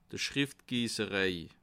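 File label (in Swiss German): "schriftgiesserei", Bas Rhin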